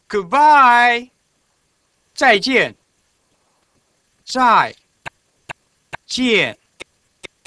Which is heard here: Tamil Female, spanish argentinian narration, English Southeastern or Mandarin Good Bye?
Mandarin Good Bye